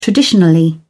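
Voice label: "traditionally", British English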